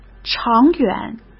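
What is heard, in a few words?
"长远", Mandarin Chinese; hsk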